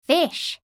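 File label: "fish", English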